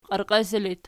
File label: "qierqesi", Archi